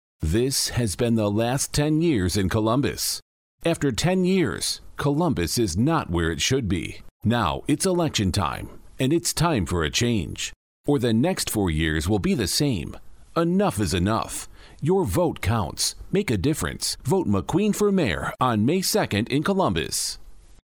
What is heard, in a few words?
Political Male